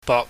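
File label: "bok", Cantonese, mid level tone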